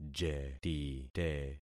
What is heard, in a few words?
"jetite", concat syllables; Affixing experiment